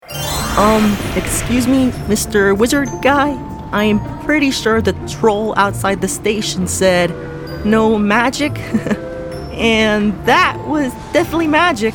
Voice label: Wizard Guy Youthful Teen Inquisitive Young Adventurer; male voiceover